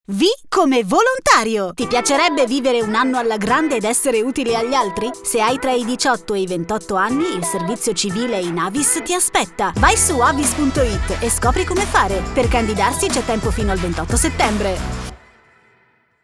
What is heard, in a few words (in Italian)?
spot radio